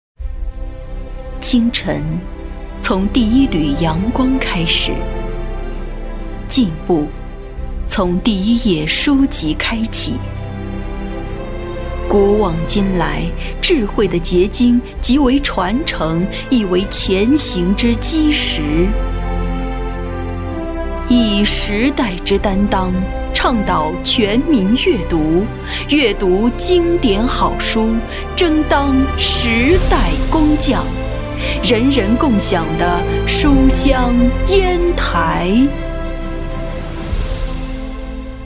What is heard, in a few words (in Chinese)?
女 城市宣传 大气朗诵; 舒缓抒情; 《书香烟台》